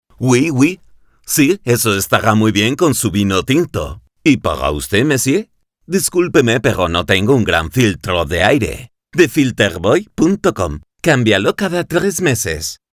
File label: Spanish video games